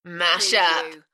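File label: British female Mashup; beanstalk audio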